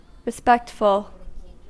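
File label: "respectful", American English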